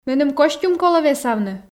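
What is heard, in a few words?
Komi, female; меным костюм колӧ весавны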